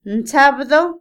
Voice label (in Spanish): sábado, Otomí, mujer; INALI